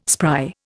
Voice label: "spry", Indian